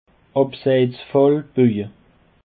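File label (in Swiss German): "abseitsfall", Alsatian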